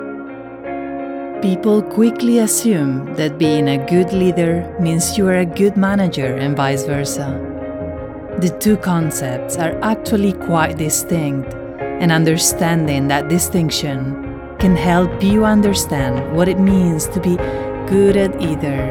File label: Spanish English CORPORATE AUTHORITATIVE CONFIDENT